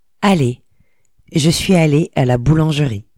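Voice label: "boulangerie", French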